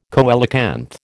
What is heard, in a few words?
"coelacanth", American